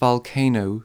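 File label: "volcano", English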